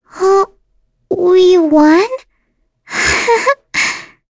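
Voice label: Vox Victory, voices heroes English; Lavril; kings raid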